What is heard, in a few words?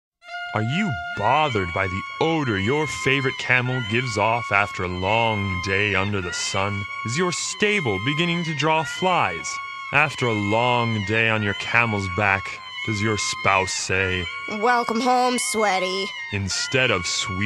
Voice Over; Kwick Klean Kamel Kleaner 60 Second Voice Over Project